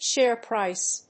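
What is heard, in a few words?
"Share price", English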